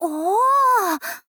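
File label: "oo", Japanese, girl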